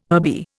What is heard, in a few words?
"herby", Indian